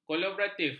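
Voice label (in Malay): "kolaboratif", Malay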